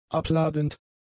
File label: "abladend", German; adjectives